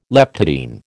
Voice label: "leptotene", American

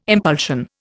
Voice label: "impulsion", Indian

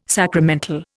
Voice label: "sacramental", Indian

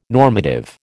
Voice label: "normative", American

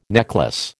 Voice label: "necklace", American

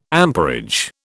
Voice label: "amperage", British

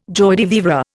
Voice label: "joie de vivre", Indian

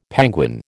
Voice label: "penguin", American

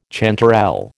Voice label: "chanterelle", American

